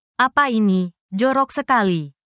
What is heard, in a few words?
indonesia, negative phrases